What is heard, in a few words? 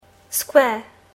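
"square", English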